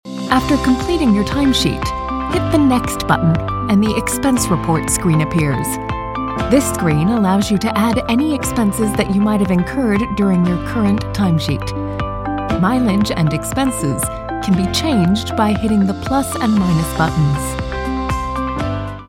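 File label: american voice elearning, American Female Voice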